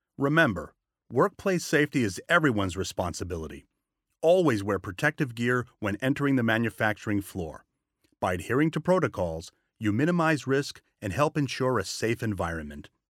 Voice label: E-Learning Workplace Safety